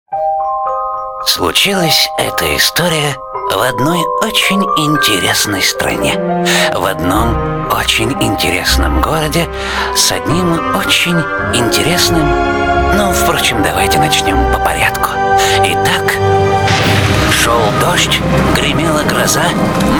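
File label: skazka Russian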